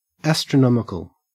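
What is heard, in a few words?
"astronomical" English, Australian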